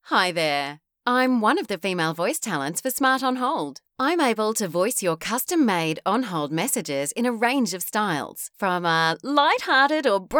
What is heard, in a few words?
FEMALE